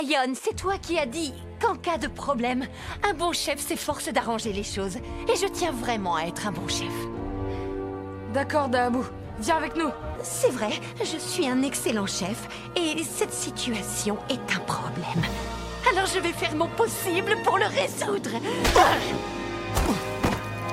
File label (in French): dessins animes; garde du lion